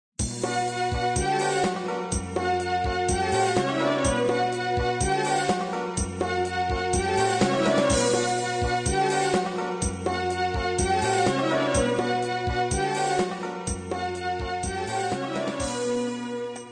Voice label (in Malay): kabar baik good news malay bangka